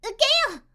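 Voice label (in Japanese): "ukeyo", Japanese; magi syozyo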